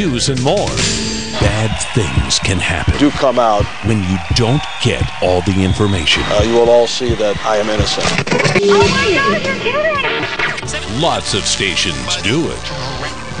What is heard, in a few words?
Radio Ad